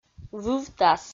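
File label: "вывтас", Komi, female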